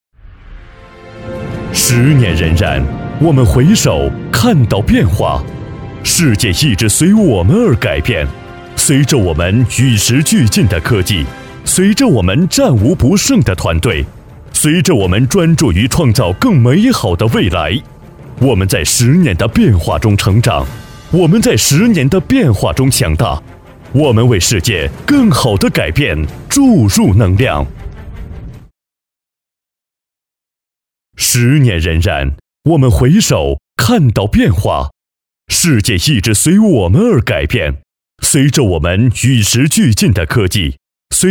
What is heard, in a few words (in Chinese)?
男, 宣传片, 年会总结, 大气磅礴